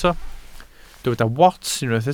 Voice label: "wats", Welsh